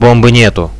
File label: no bomb, radio bot; Reallite